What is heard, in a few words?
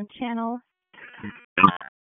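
Fire Department HQ Dispatch; RFD; wake; p25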